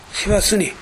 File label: "sewasune", minamiyamagata